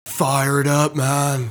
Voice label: Stoner Fire It Up Man; blackjack; Intro Voices

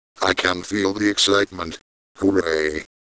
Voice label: Terminal Win, Computer; blackjack

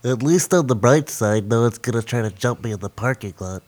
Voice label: Nerd Lose; blackjack

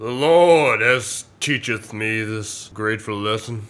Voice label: Preacher Lose; blackjack

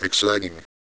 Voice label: Terminal Win, Computer; blackjack

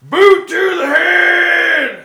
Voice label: Special, Tough Guy Voice; blackjack

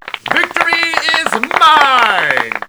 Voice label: Announcer Win; blackjack

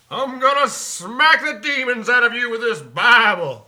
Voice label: Preacher Lose; blackjack

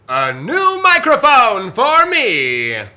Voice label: Announcer Win; blackjack

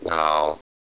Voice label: Terminal Win, Computer; blackjack

